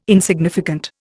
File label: "insignificant", Indian